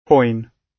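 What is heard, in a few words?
"coin", British English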